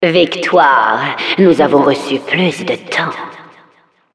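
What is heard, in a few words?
Announcer time awarded success, French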